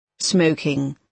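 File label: "smoking", British English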